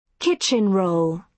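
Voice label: "kitchen roll", British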